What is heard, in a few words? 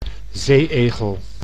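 "zee-egel", Dutch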